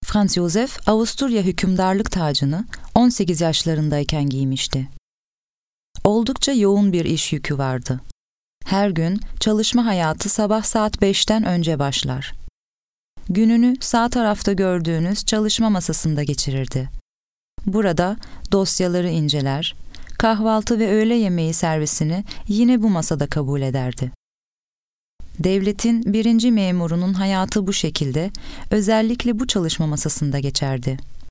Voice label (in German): Tuerkei W1, voiceactors